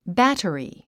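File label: "battery", English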